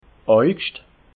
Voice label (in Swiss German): "oigscht", alsace